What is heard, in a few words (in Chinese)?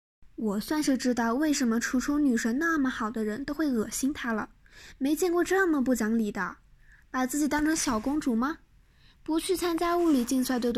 反派对话